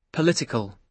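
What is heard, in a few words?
"political", British English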